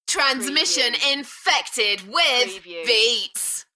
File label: UK female, Transmission infected with beats, Enthusiastic; beanstalk audio